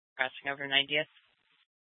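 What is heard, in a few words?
police dispatch, Scottsdale PD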